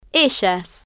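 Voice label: "ellas" Spanish, female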